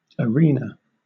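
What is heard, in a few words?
"arena", British English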